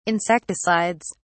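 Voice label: "insecticides", British English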